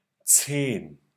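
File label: "Zehn", German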